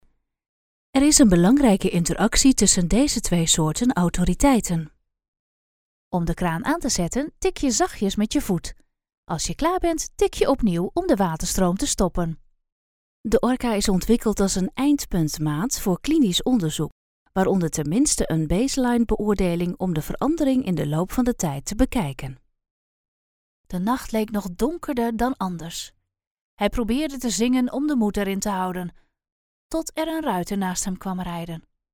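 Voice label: Dutch; generico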